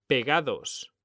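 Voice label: "pegados", Spanish; mekanta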